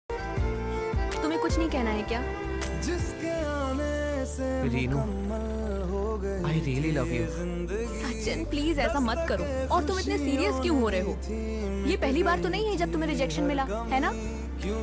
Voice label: heart break scene sad; premalu